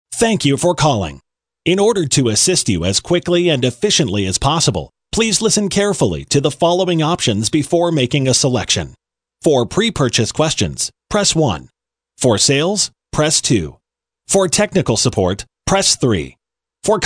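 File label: male ivr, Voice Prompts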